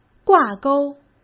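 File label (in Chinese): "挂钩", Mandarin Chinese; hsk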